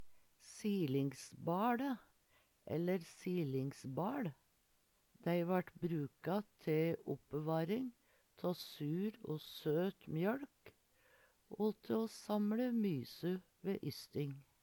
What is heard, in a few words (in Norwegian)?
silingsbale, Numedalsmål